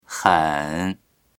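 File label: "hen3", Chinese; 很; たいへん、とても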